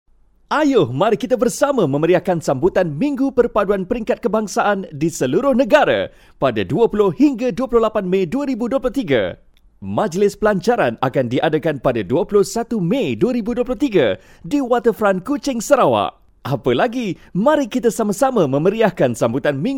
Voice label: locutor malayo malay voiceover, Malay male voice; Malay voice talent; Malaysian